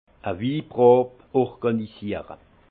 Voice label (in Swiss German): "organisiere", alsace; vin; organiser une dégustation